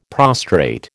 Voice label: "prostrate", American